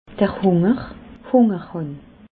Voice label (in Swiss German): "hunger", alsace